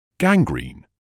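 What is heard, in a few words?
"gangrene", British English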